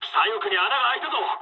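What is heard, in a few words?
Japanese left wing mood low; War Thunder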